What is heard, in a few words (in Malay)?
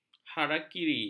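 "harakiri", Malay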